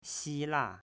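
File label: "Xila", Chinese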